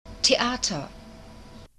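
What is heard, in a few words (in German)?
"Theater", German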